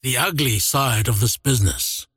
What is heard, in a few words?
last hit pain; dota; largo